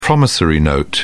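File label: "promissory note", British English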